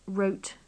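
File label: "wrote", British English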